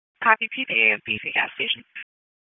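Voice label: Police District Dispatch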